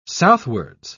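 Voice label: "southwards", English, British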